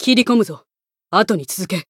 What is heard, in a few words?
Voice limitbreak, jp; toome